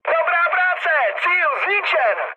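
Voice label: bomb success, Czech, mood high; speech; War Thunder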